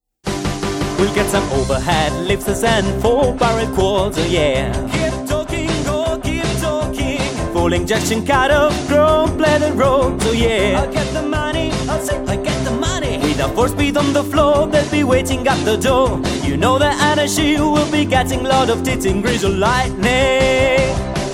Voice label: musical uptempo